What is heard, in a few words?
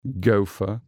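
"gopher", English; animals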